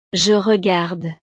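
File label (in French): "je regarde", French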